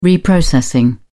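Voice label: "reprocessing", British English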